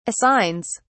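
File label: "assigns", British English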